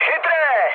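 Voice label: trick, Czech, mood med; speech; War Thunder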